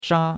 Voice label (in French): "sha1", chinois; Cours-de-Chinois